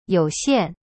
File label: "you3xian4", Chinese; cyberchinese